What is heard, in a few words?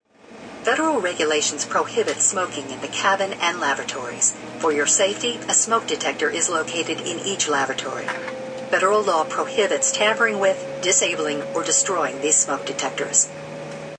Plane Smoking Regulations, Stewardess makes no smoking announcement before airline takeoff.; Airplane Sound Effects